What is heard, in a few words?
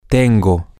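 I have, Spanish, male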